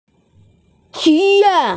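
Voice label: "xu1yao4", Mandarin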